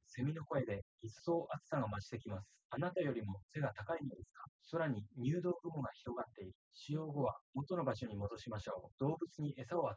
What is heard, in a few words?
male3 synthconv; SiSEC08; SiSEC underdetermined